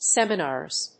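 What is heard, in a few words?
"seminars", English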